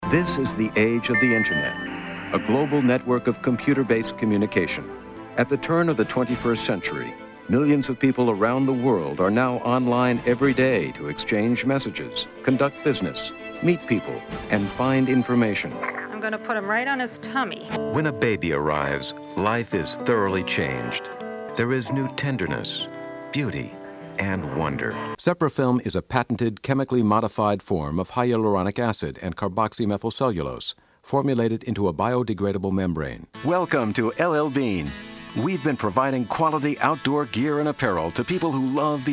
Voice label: narration